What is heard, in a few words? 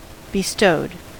"bestowed", US